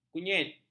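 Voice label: "kunyit", Malay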